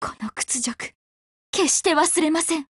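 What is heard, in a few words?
Japanese, Voice; BREAK; GF Alarm; RPK203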